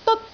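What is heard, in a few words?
"deot1", Cantonese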